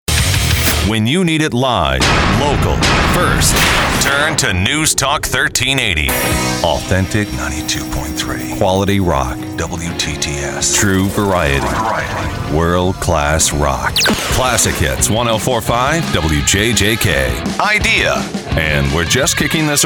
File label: Voiceover